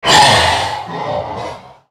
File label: spell cast effort; CyberNocturne; Character Voices - English